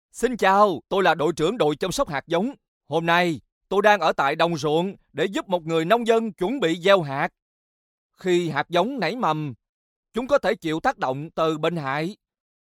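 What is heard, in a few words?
Vietnamese, Explainer; GoLocalise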